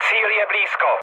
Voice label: near waypoint, speech cze, mood low; War Thunder